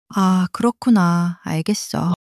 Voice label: sadness, monologue

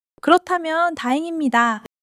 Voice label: joy; monologue

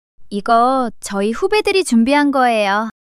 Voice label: shy

